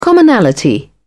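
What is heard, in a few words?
"commonality", British English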